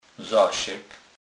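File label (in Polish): "zasek", Kurpie dialect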